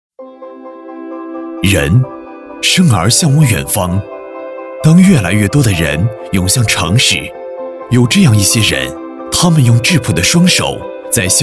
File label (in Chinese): 男 宣传片; 翼龙贷